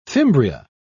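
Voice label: "fimbria", British English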